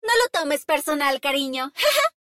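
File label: Latin American Spanish; 回声（守望先锋2）; 终极技能; 拉美地区; 西班牙语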